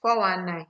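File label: "419", Nigerian Pidgin, female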